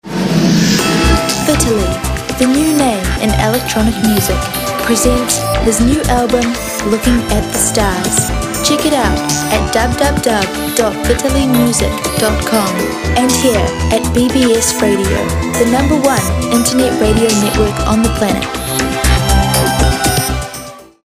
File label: bbs radio promo